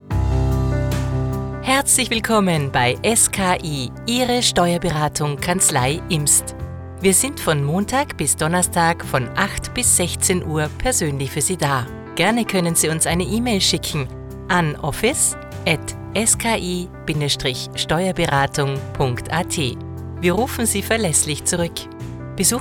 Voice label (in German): Nachtansage; SKI Steuerberatung; telefonansagen sonstige